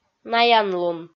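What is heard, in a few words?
"наянлун", Komi, female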